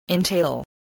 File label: "entail", spelling